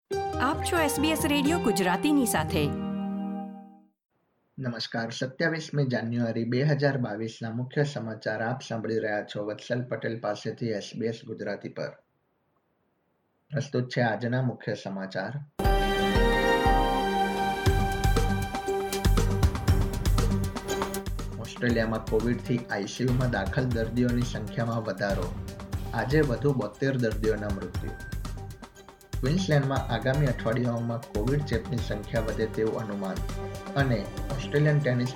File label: gujarati news bulletin; sbs